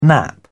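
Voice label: "nap", British English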